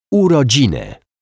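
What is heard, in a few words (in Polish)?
"urodziny", Polish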